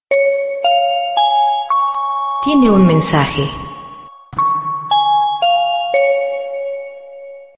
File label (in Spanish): Mensaje Aeropuerto